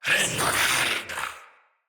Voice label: Attack Knight Male, Battle; Barks; Dialog; Mendicant